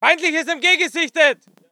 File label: voice ger infantry; Red Orchestra 41-45